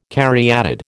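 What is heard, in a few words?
"caryatid", American English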